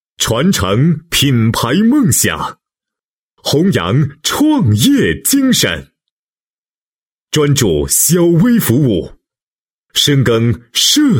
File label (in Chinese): 男, 银行企业宣传; 台州银行中国小微企业金融服务领先银行